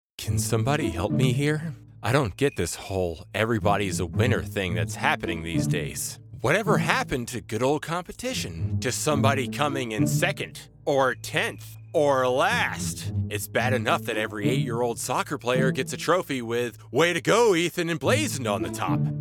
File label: Character, Male voices